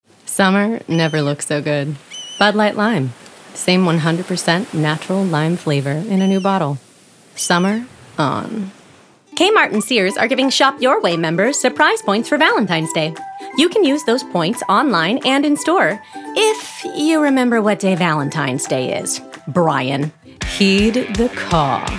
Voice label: Commercial VO